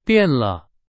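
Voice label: Chinese, example sentence; hsk2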